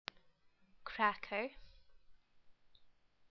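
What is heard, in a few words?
"cracker", English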